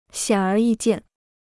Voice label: "显而易见", Chinese